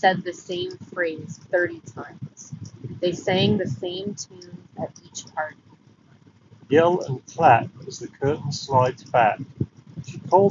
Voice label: Sony WF-C700N Wind microphone sample